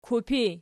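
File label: "kupi", nominative, Archi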